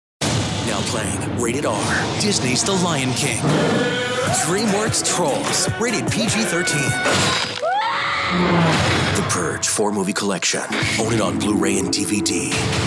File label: trailer men